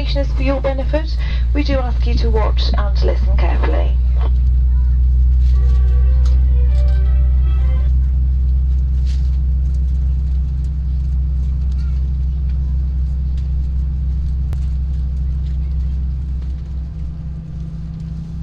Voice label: Flight purser announcement